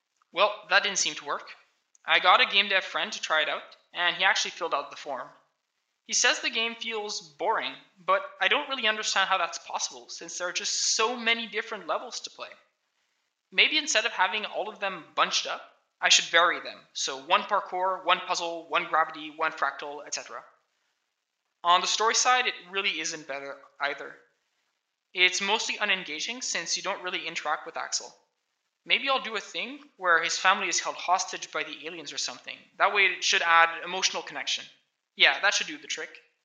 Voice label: voice, narration